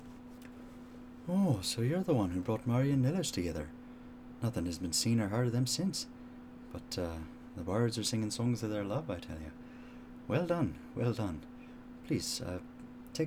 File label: breton irish voice